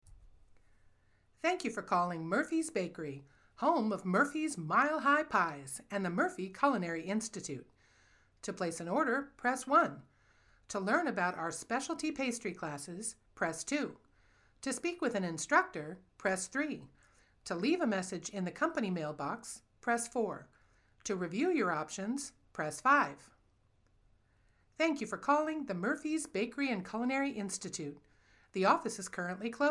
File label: Phone System